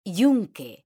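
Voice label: "tunque", Spanish, female; mekanta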